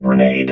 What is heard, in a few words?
grenade, robot